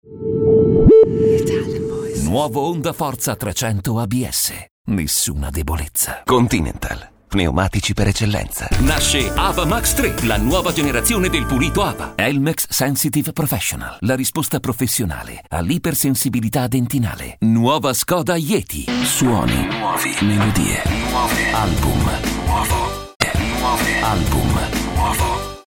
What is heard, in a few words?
ITALIAN VOICES Authoritative Convincing, male voices